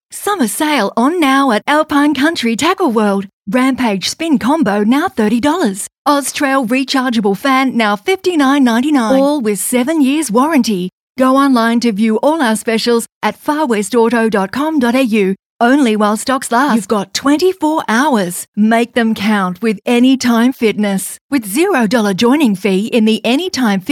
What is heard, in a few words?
Hard Sell